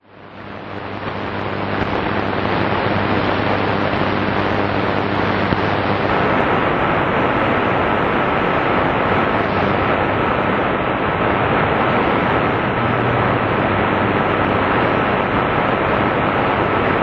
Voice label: 15215 kHz cannada; Adventist World Radio; Degen DE 1103; filo 5m indoor; St. Ursen

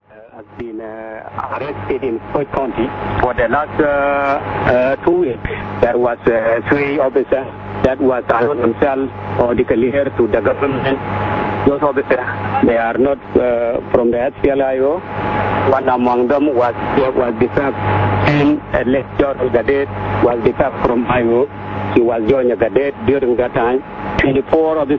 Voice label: 15550 kHz, inglese; Radio Tamazuj; Degen DE 1103; filo 5m indoor; St. Ursen

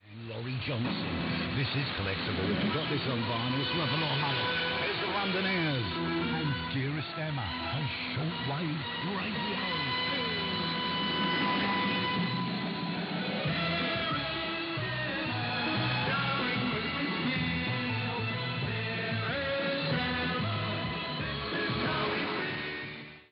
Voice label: AM Shortwave Radio 3975 kHz inglese; Perseus; Schwarzsee

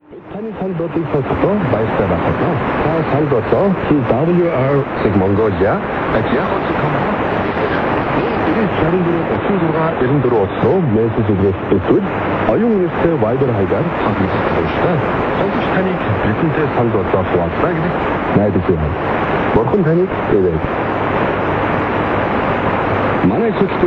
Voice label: 12120 kHz, mongoliano; TWR Guam; Degen DE 1103; filo 5m indoor; St. Ursen

